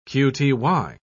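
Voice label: "qty", British English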